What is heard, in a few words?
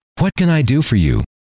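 what Can I Do For You, male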